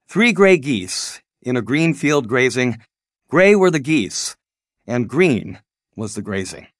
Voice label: Three Grey Geese male us